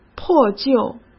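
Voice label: "破旧", Mandarin Chinese; hsk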